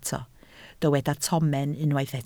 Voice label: "tomen", Welsh